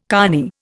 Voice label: "cony", Indian